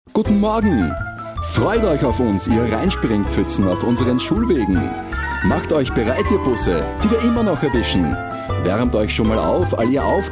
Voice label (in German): Werbung; Nutella